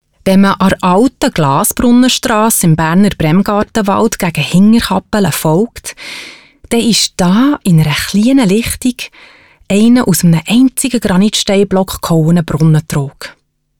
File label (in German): CH DEUTSCH